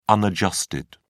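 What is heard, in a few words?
"unadjusted", British English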